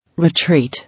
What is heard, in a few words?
"Retreat", English